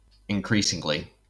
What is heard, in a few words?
"increasingly", us